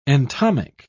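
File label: "entomic", English, British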